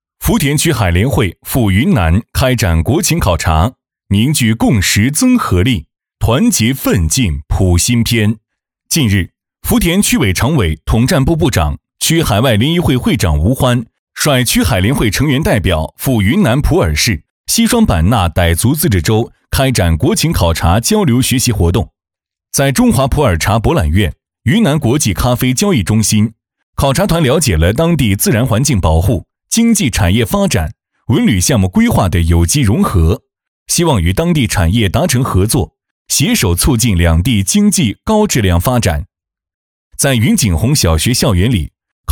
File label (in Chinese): 男 新闻